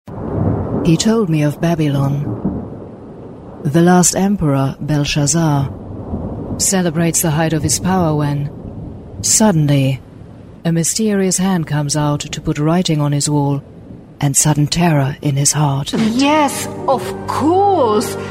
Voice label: German female, English speaking